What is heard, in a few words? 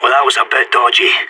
radio bot end close, player vo sas